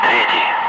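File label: Russian calm; Ka-50; Wingman; DCS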